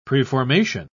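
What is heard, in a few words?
"preformation", English, British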